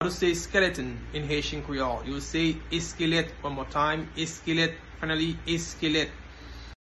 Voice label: Eskelet pronunciation by a Haitian teacher, Haitian Creole